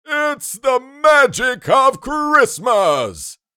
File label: The magic of christmas santa claus voice